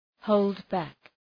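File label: "hold back", English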